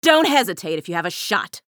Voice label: chatter neutral; athena